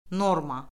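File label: "norma", Azerbaijani; Orfoqrafiya Lüğəti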